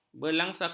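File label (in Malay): "belasungkawa", Malay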